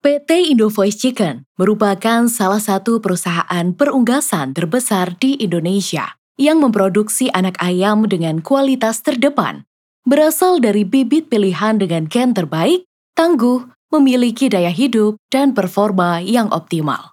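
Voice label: formal Indonesian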